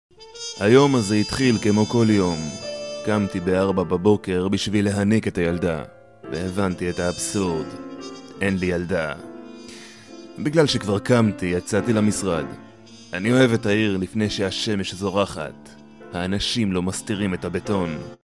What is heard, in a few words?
male hebrew voice over character